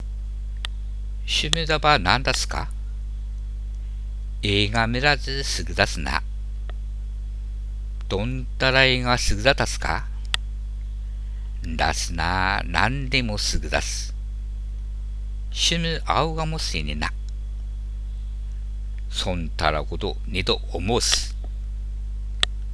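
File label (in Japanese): ハナメとコウメ, 秋田弁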